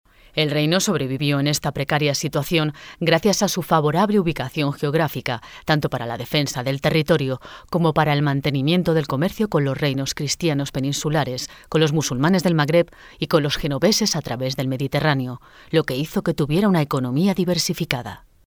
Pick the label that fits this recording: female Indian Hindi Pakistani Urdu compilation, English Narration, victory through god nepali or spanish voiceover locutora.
spanish voiceover locutora